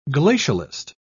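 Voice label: "glacialist", English, British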